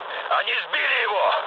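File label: Russian aircraft destroyed mood low, speech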